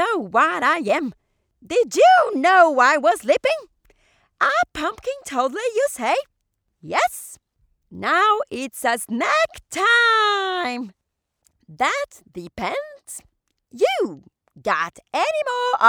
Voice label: female brazilian portuguese english with portuguese accent character